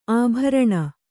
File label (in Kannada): "ಅಬ್ಬರಣೆ", Kannada